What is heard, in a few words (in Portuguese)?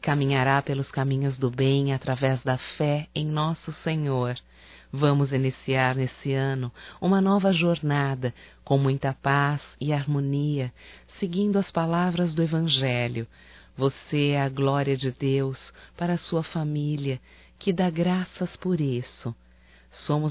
Natal e Ano Novo Neutro Feminino; Telemensagens 2017